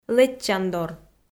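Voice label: "лэччандор" Komi, female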